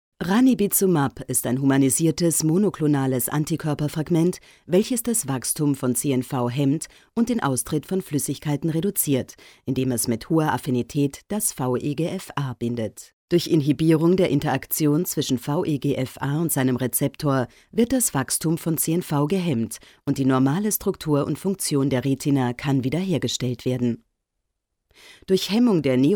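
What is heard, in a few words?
german Austrian female narration; voice demos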